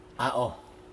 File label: "ao", no, Aneityum